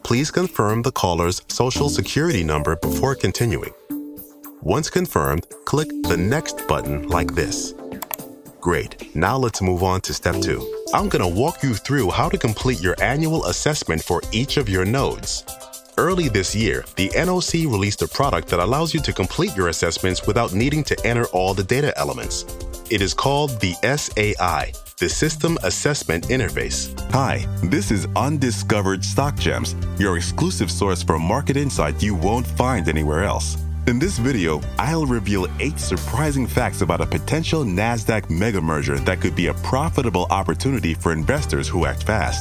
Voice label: explainers